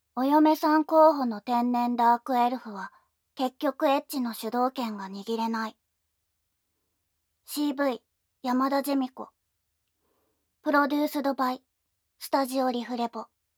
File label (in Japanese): タイトルコール, ASMR